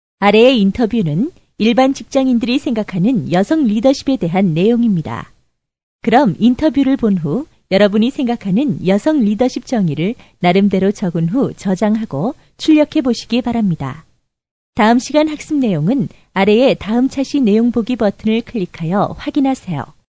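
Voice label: korean female voice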